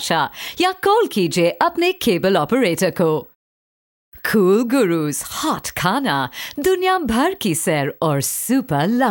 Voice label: hindi, female voice; TLC

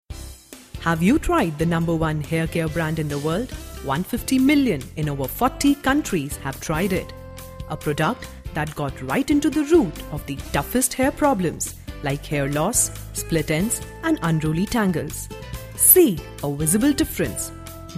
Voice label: Promo, female voice

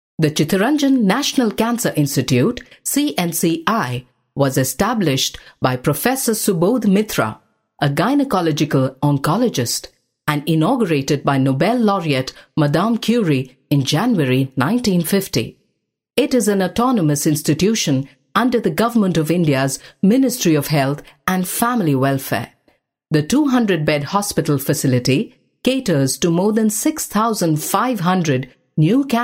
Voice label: vo english, female voice

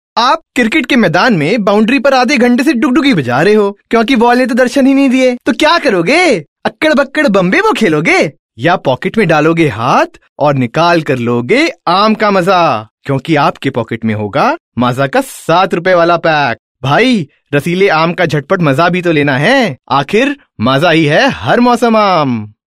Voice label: Hindi, male voice

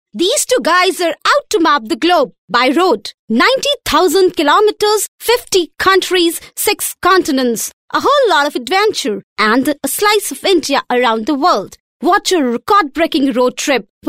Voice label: English, female voice

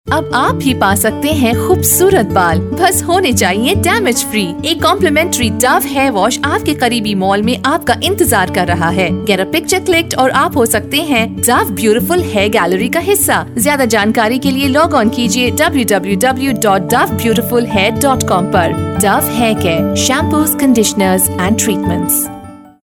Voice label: HIN, female voice

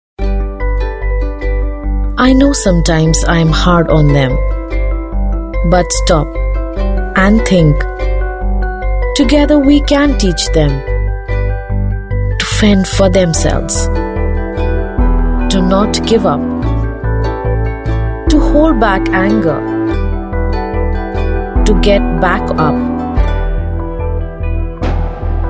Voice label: English, female voice

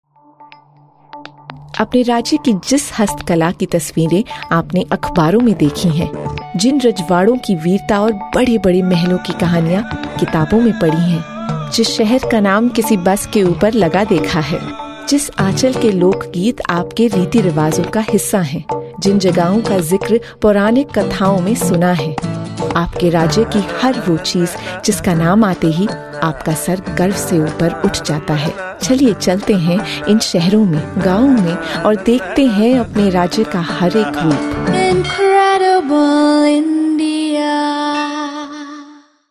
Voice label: tourism hindi vo, female voice

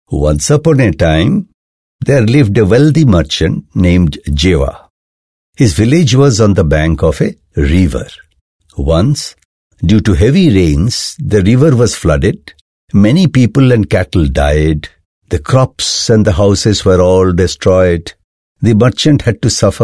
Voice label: English elearning storytelling, male voice

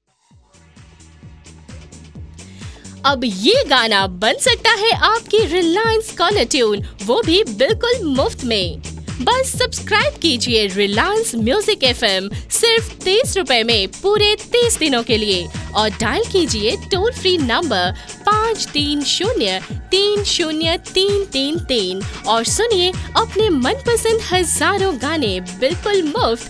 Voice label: hindi, female voice

